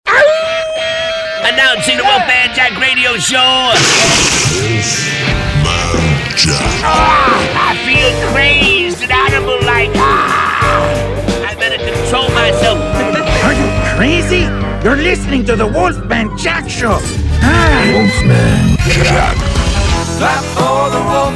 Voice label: classic hits promo; WOLFMAN JACK